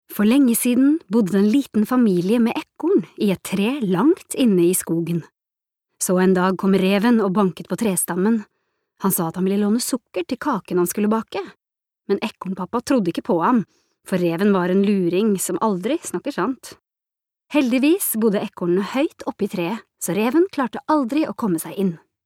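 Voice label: Norwegian childrens book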